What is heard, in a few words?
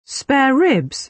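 "spare ribs", British English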